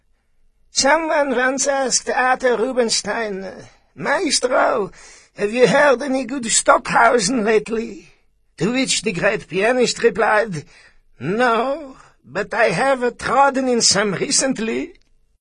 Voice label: Character Old Jedish